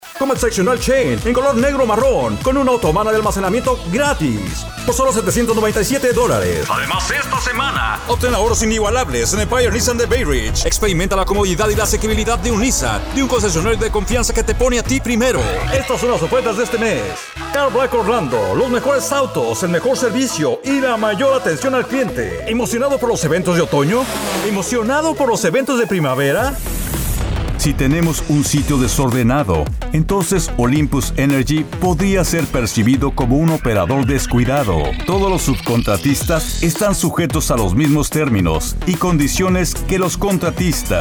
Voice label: Spanish